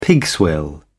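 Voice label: "pigswill", British English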